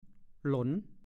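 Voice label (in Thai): "หลน" ภาษาโคราช; เคี่ยวอาหารให้น้ำงวด เช่น หลนปลาร้า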